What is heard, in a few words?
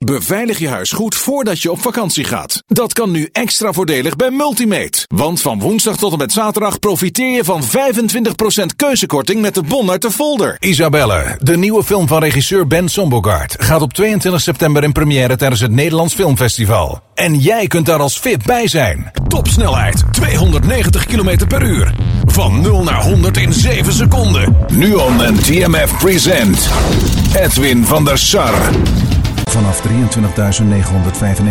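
Dutch, Commercial; GoLocalise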